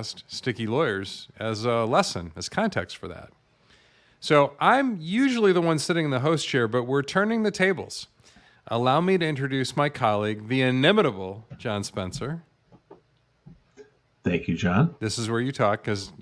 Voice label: Podcasting